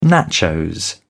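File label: "nachos", British English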